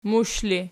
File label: "mush", Archi